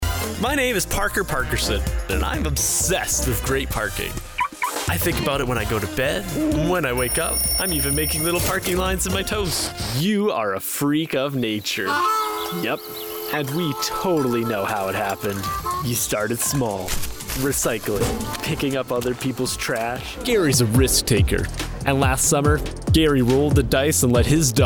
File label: Commercial